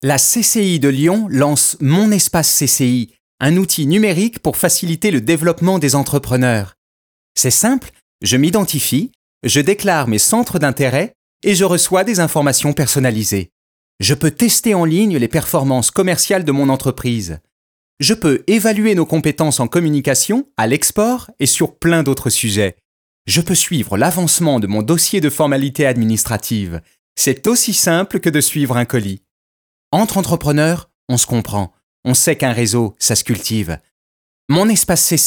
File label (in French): INSTITUTIONNEL; CCI LYON